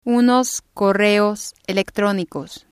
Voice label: "unos correos electronicos", Spanish

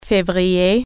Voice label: february, french

